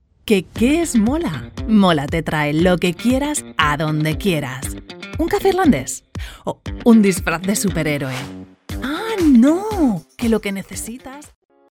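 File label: Spanish, female, explainer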